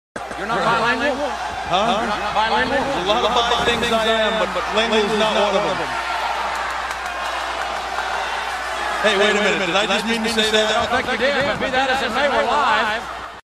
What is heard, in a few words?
bilingual